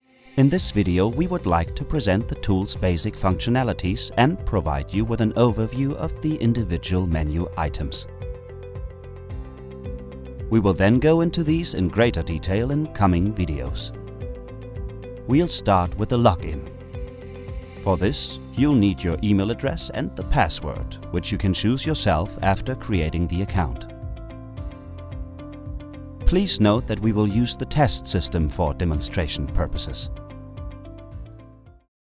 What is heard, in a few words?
E-Learning English; Heidelpay